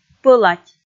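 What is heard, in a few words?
"пӧлать", Komi, female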